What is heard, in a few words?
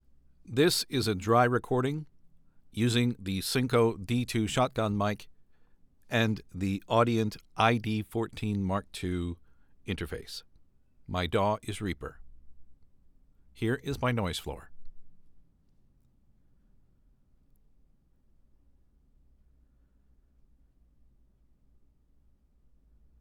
DRY Mic